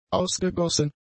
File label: "ausgegossen", German; adjectives